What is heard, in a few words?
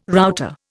"router", Indian